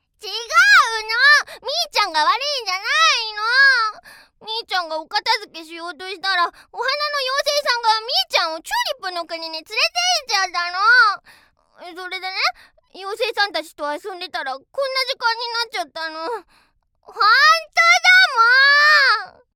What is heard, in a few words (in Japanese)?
説明する幼稚園児(幼児 元気)